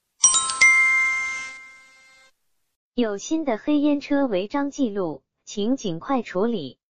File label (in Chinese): 语音提醒